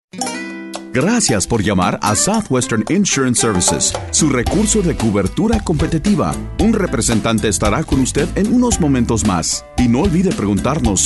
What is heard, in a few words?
spanish male, onhold message